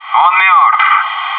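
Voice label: "he's dead", male voice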